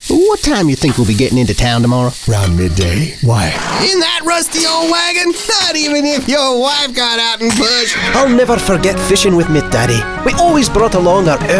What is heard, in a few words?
men animation; Killer Branding Voice – Real Person, Cool, Conversational, Original, Big City, Small Town, Approachable, Best Friend.